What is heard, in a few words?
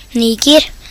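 "Niger", Norwegian; gcompris; geography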